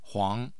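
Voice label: "huang", Mandarin Chinese, tone 2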